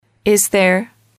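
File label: "is there", English, female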